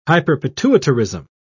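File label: "hyperpituitarism", British English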